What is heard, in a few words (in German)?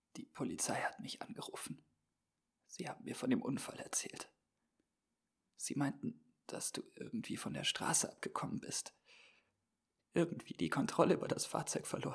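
Traurig, Schauspiel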